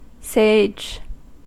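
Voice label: "sage", American English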